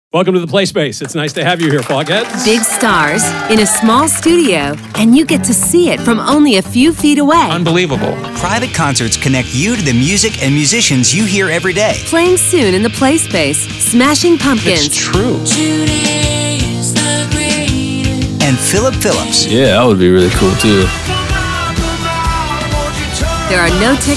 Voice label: Promo; KFOG; PlaySpace